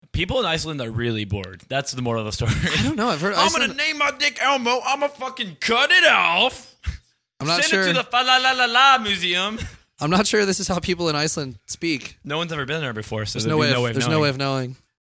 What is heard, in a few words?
icelandic accent